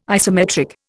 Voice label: "isometric", Indian

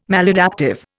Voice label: "maladaptive", Indian

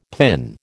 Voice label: "PIN", American

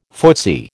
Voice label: "footsie", American

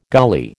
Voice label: "gully", American

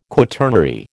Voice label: "quaternary", American

